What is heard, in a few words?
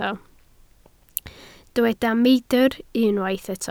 "meidr", Welsh